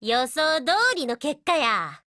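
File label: WIN Japanese, Voice; GF Alarm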